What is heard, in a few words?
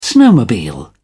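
"snowmobile", British English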